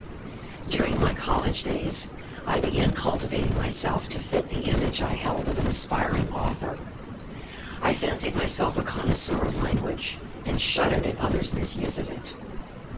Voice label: male noisy